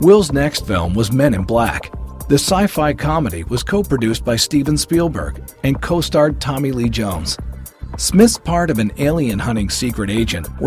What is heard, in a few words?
men narration; Bright, authoritative, real, approachable.